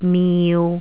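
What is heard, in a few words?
"miu6", Cantonese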